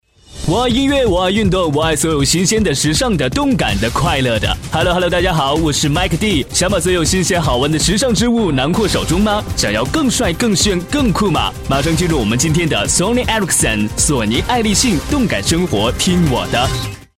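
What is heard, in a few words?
Mandarin Chinese, China, male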